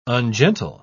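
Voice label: "ungentle", English, uk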